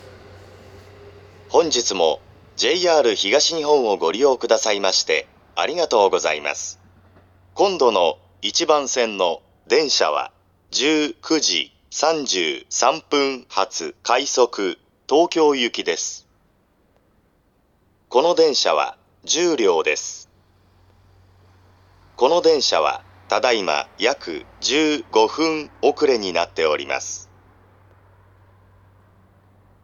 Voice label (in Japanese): 到着予告放送, ATOSタイプF(男声), 青梅線, 遅延時の放送です。到着予告の最後に遅延案内が付帯します。; 立川・東京 方面; 1933発 快速東京行 10両 15分遅延; 牛浜; スピーカー JVC横長型